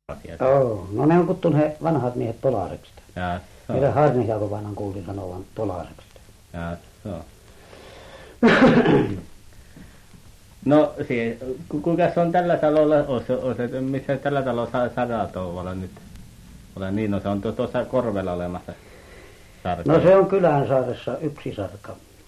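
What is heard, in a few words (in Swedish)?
Övertorneå Norrbotten, dialekter; Folkminnen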